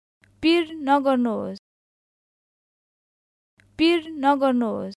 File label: No worries, Nepali